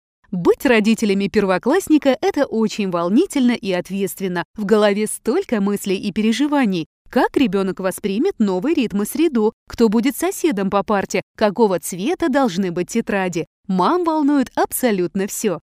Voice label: russian narration

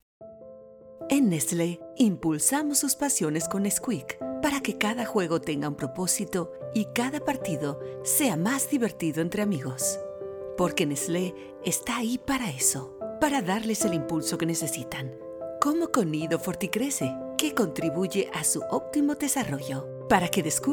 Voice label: spanish latin american commercial

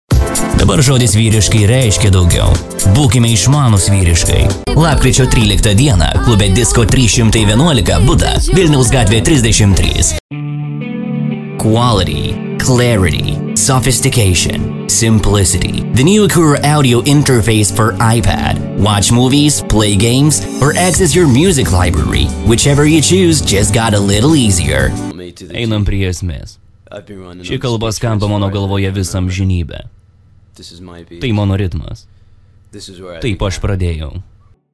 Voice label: lithuanian commercial

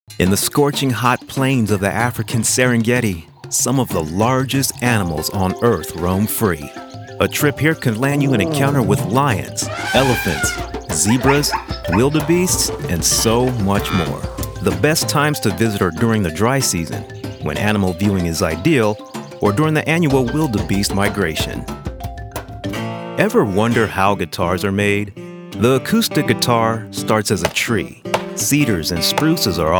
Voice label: english american narration

